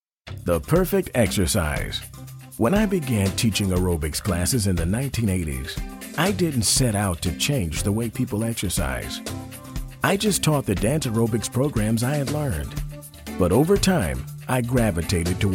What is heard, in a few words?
elearning